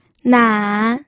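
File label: "nan", third tone, pinyin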